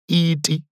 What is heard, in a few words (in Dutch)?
"ítí", Xhosa; thee-in-andere-talen